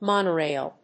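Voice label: "monorail", English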